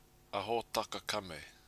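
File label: "ahotakakame", Maori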